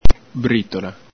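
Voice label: "britola", Veneto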